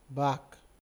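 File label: "back", Nigerian Pidgin, male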